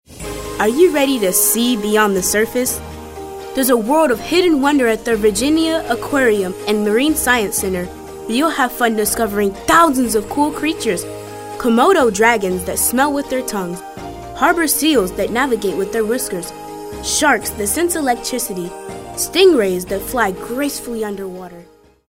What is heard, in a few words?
upbeat